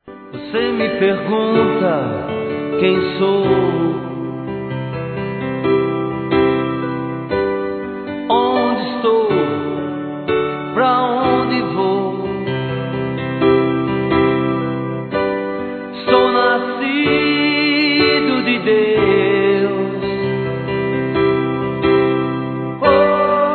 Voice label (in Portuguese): DESCULPAS ROMANTICAS, Telemensagens, Gospel, masc